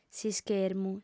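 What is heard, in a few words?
"s'ischermu", Sardinian, female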